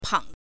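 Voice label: "pang", Mandarin Chinese, neutral tone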